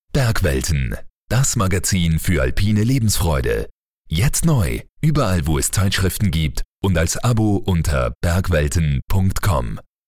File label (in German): Mann OFF